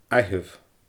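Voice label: "aitheamh", Scottish Gaelic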